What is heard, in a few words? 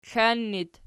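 "klan" locative, Archi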